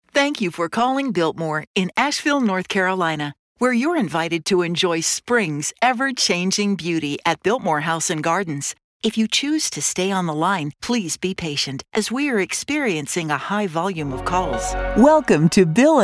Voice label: IVR